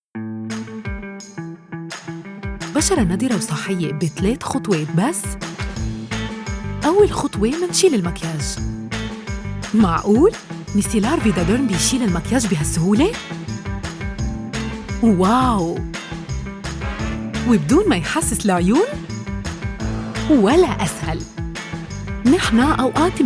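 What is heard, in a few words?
funny, life, easy-going, Commercial; VidadermMilano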